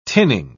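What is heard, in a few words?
"tinning", British English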